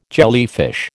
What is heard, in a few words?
"jellyfish", American